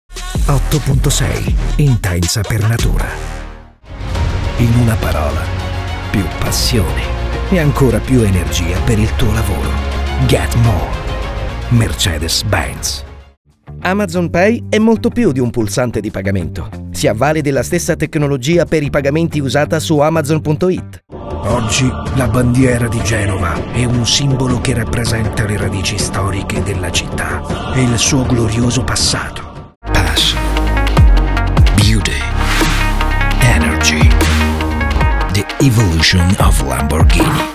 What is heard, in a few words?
male italian accent commercial